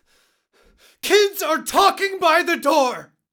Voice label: angry; Real-Time Voice Cloning v2; We have to reduce the number of plastic bags.